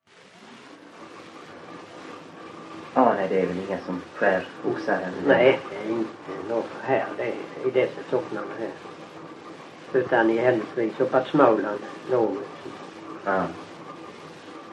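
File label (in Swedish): Öljehult Blekinge, dialekter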